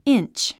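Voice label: "inch", English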